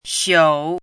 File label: "xiǔ", hanzi